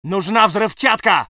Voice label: voice sov infantry